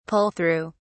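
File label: "pull through", British English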